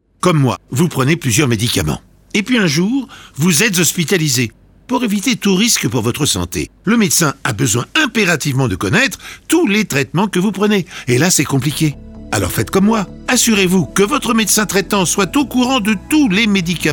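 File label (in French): spot radio campagne medicament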